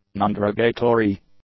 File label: "nonderogatory", english; adjectives